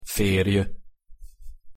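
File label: "ferj", Hungarian pronunciation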